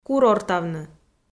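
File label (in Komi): "курортавны", Komi-Zyrian, female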